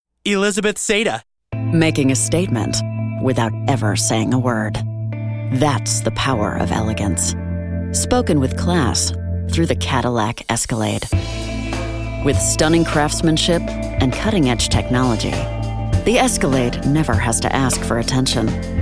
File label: Auto commercial